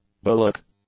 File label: "bullock", English; nouns